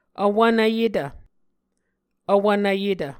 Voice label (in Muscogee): "vwvnvyetv", Muscogee